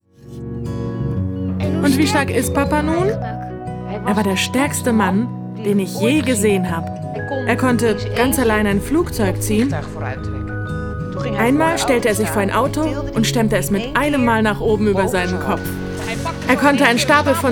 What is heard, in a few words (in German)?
Voiceover Kinderfilm Sprecherin